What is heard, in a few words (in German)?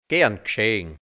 gern gschegn, Mundart, Burgenland, hianzisch; gerne geschehen; ist schon in Ordnung